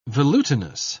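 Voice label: "velutinous", British English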